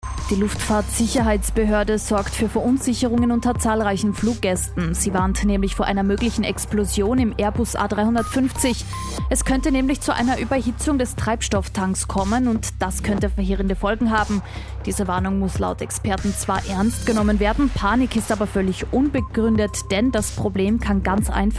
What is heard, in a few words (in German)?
Interview; Kronehit; Explosionsgefahr beim Airbus A350